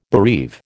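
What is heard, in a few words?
"bereave", American English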